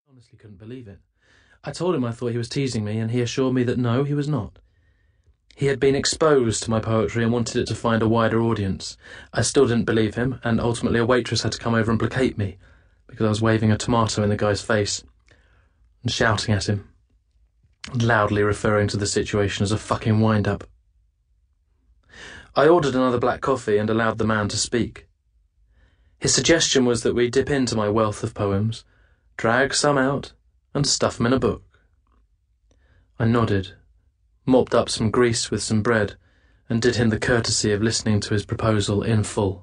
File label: AUDIOBOOK; THE INCOMPLETE TIM KEY